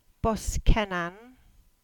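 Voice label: "Boskinning", Cornish; place-names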